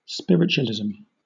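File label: "spiritualism", British English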